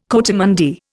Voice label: "coatimundi", Indian